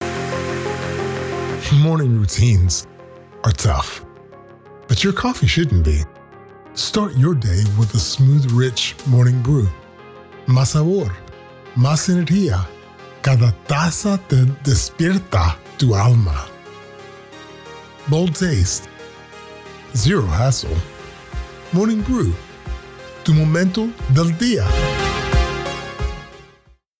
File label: Bilingual Commercial